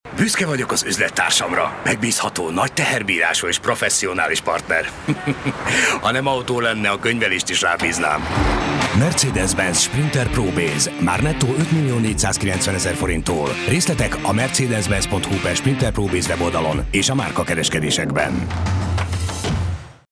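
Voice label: hungarian automotive, Hombre; Automoción; hungarian voice actors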